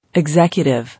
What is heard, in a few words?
"Executive", English